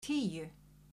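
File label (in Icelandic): "tíu", Icelandic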